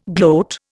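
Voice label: "glob", Indian; Dictionary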